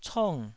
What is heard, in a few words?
"cong4", Taiwanese